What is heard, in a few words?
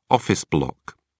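"office block", British English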